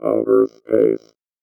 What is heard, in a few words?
VOICEFX ELCTR 140; Techno2